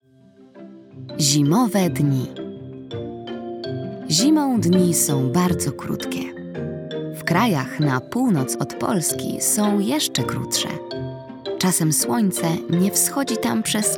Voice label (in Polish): narracja; czasopismo mis; zima